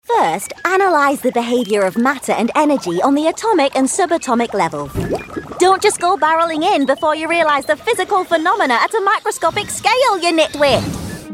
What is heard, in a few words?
character, Modern Engaging Voiceover